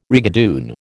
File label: "rigadoon", American